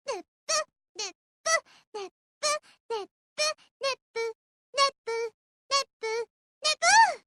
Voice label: japanese dub; nepnep